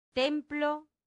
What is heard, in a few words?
"templo", latin; santillana